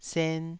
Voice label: "sen2", taiwanese syllable

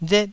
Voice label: "ret4", taiwanese

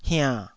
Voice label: "hiann3", Taiwanese syllable

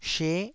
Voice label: "she9", taiwanese Syllables

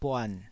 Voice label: "buan3", taiwanese syllables

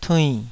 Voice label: "tuinn3", taiwanese

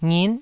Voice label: "ngit9", taiwanese syllables

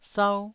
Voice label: "sau1", taiwanese syllable

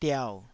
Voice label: "diau3", Taiwanese syllable

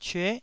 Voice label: "cyue9", Taiwanese syllable

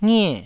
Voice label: "ngie4", taiwanese syllables

